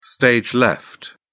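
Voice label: "stage left", British English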